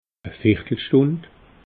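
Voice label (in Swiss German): "viertelstund", Alsatian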